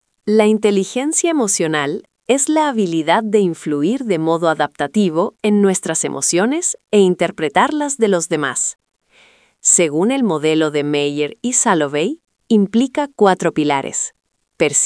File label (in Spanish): locucion